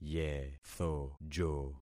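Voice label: "yethojo", concatenated syllables; Affixing experiment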